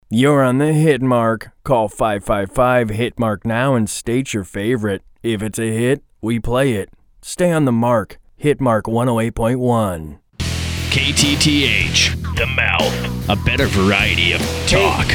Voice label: audiobook RADIO IMAGING

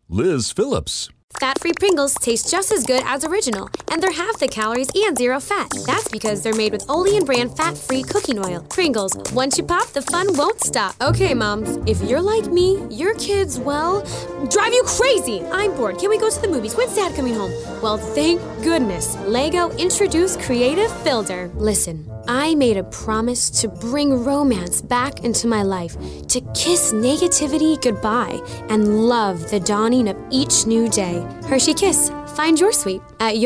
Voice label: Commercial VO

